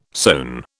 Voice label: "sewn", British